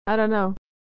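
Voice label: "dunno", American English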